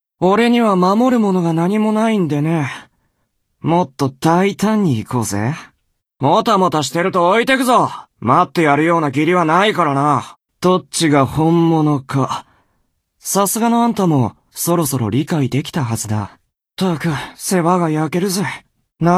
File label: japanese video games